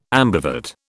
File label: "ambivert", British